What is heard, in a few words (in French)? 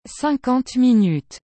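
"cinquante minutes", French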